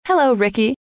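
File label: hello, female; trainer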